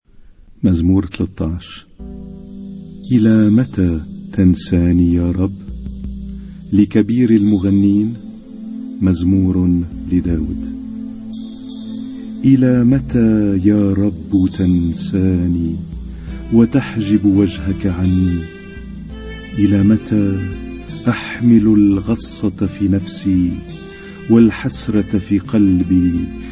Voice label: Arabic Psalm Zaboor